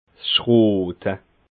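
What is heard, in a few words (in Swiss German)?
"schroote", Alsatian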